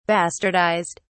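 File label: "bastardised", British English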